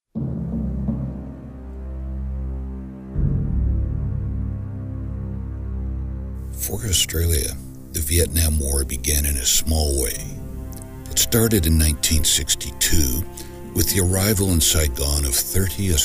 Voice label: Narration; Ashes of Vietnam